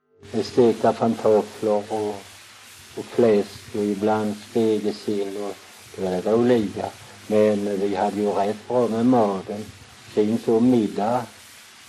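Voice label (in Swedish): Väsby Skåne, dialekter; Folkminnen; Svenska sagor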